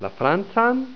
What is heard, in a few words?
"francan", esperanto